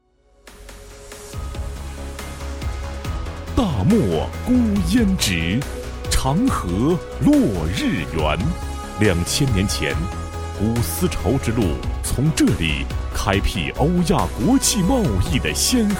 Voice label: 男 专题 工业园 大气浑厚, 国语男声配音

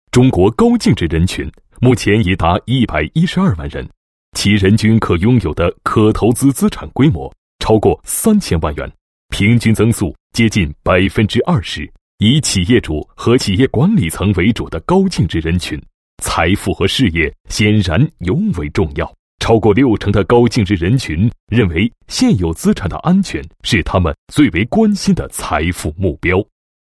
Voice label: 男 企业 宣传; 凯恩斯宣传

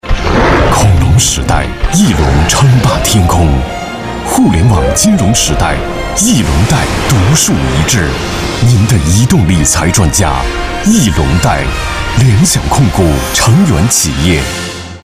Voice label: 广告; 翼龙贷

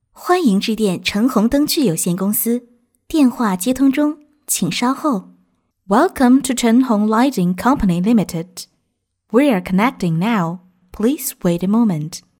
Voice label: 女 中英彩铃 灯具

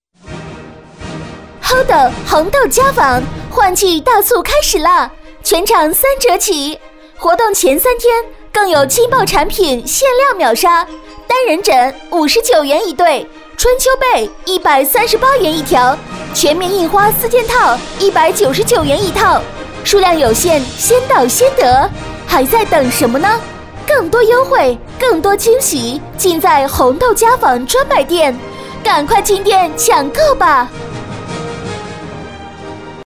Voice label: 女 广告 激情促销, 国语女声配音; Hodo红豆家纺换季大促开始啦

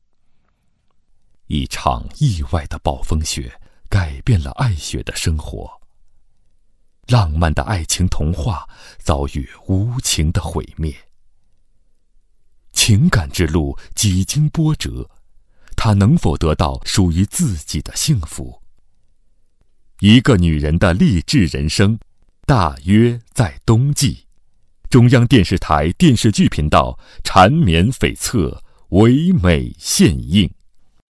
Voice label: 男 电视剧片头, 国语男声配音; 大气专题

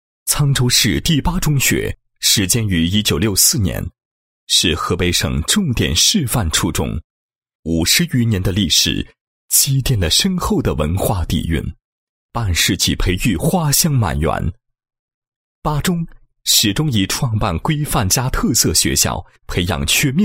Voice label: 男 学校 书法教育学校宣传解说词

